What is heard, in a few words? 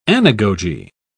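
"anagogy", British English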